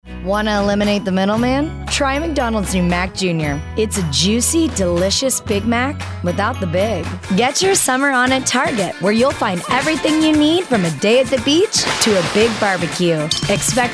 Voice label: commercial women